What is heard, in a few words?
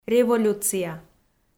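"революция", Komi, female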